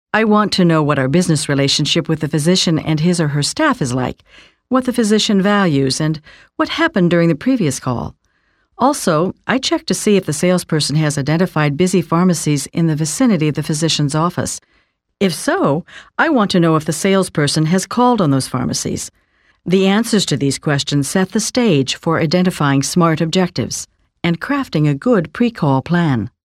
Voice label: 40s 50s Female

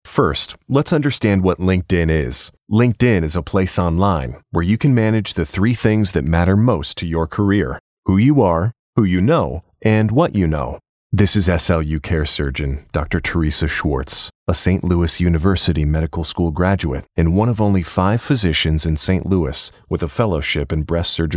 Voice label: 20s30s Male Narration

